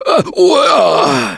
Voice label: Hurt Major, russian; Gambler